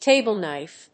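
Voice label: "table-knife", English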